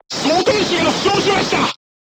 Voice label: voice message charger wounded, crew tanks japanese